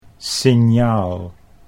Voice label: "signaal", Dutch

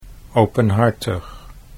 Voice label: "openhartig", Dutch

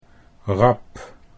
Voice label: "rap", Dutch

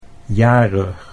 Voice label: "jarig", Dutch

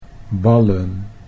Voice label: "ballen", Dutch

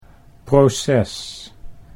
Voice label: "proces", Dutch

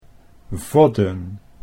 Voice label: "vodden", Dutch

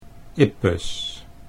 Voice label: "ippes", Dutch

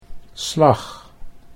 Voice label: "slag", Dutch

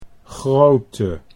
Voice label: "grote", Dutch

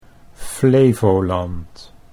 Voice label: "Flevoland", Dutch

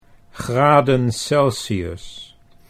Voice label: "graden Celsius", Dutch

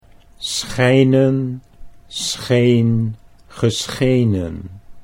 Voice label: "schijnen scheen geschenen", Dutch

